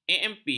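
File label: "amp", Malay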